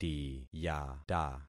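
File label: "tiyata", concatenated syllables; Affixing experiment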